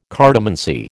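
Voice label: "cartomancy", American English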